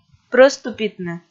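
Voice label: "прӧступитны", Komi, female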